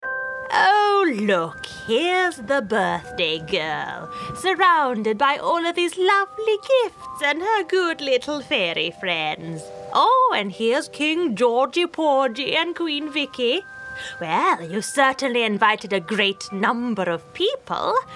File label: Character Wicked Witch; Gaming